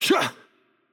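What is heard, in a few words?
Attack Light, Brazilian Portuguese; VO; bloom minigame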